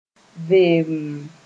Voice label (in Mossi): "vemm", moore